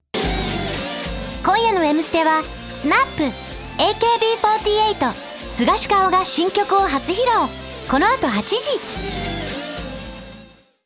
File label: jp promo; jp mstation promo